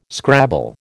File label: "scrabble", American